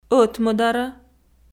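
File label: "ӧтмӧдарӧ" Komi, female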